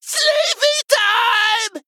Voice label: Male Abomination Attack

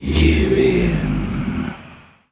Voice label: Male Sha Attack

